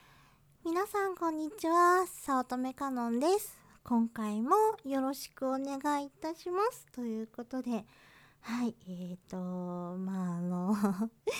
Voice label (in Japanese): 自己紹介 モノラル